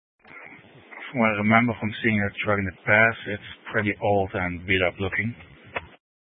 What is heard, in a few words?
Sheriff Dispatch, scanner; Mesa County